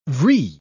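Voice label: "vrille", British English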